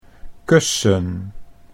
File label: "kussen", Dutch